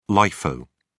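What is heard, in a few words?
"lifo", British English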